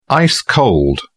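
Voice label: "ice-cold", British English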